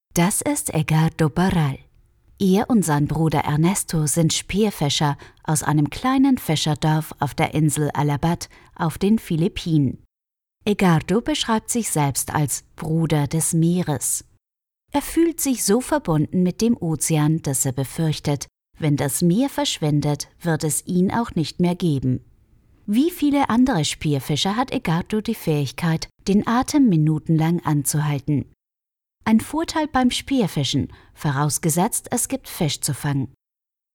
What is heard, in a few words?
german narration